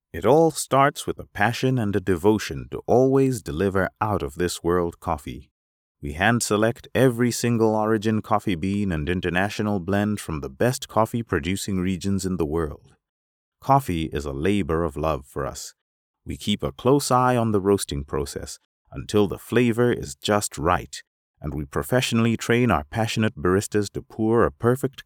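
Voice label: African English Male sample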